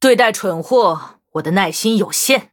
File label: Threaten, zh